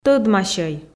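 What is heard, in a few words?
"тӧдмасьӧй", Komi, female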